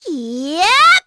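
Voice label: Vox Casting, korean; Hanus; kingsraid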